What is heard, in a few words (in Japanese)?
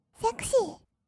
"セクシー", kawaii voice; 音ゲー